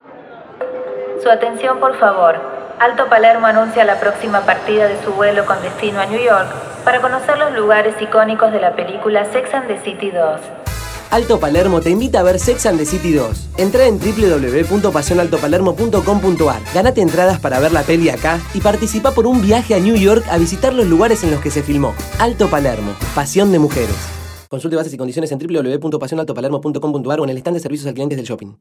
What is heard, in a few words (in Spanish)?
hablados, acting; alto palermo